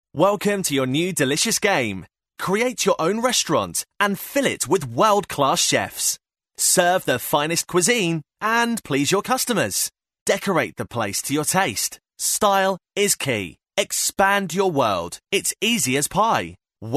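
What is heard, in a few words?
English British, male, voice over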